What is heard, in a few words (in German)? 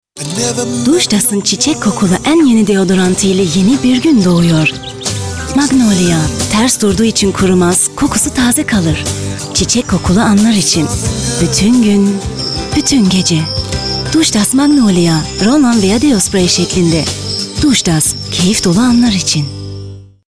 tuerkisch; duschdas